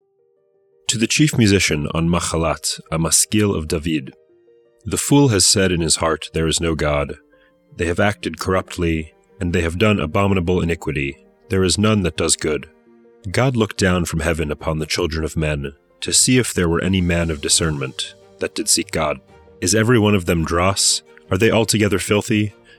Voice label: poetry and prayer psalm english